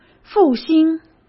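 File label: "复兴", Mandarin Chinese; hsk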